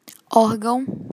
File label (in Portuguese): "órgão", Portuguese